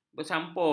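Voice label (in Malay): "bersampul", Malay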